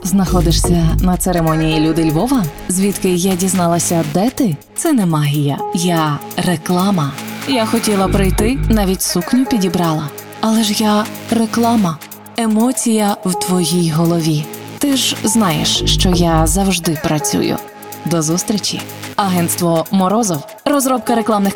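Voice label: Ukrainian, female, commercial; voice-over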